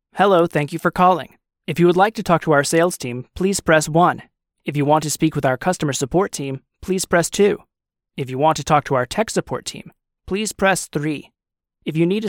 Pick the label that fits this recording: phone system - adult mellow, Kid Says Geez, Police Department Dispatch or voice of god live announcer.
phone system - adult mellow